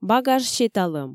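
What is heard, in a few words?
Komi, female; багаж сеталӧм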